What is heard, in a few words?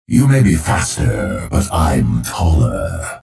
chatter positive; clutch; frag